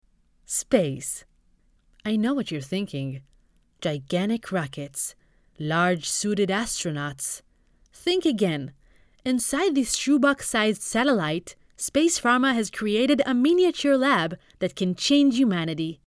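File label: Hebrew English Female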